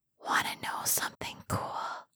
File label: asmr girl wanna know something cool; ringtone; soft